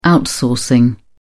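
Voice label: "outsourcing", British English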